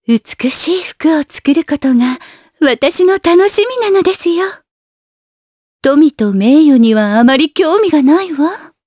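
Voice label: voice dia, voices heroes, Japanese; Yuria; kingsraid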